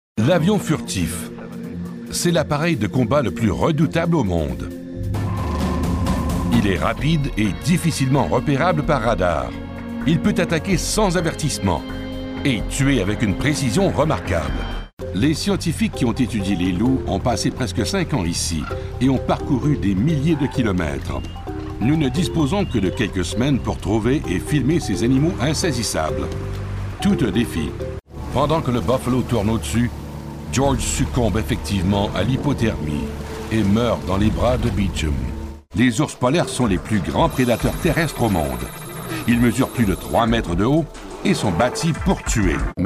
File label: french canadian narration